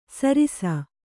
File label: "ಸರಿಸ", Kannada